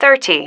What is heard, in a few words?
"thirty", female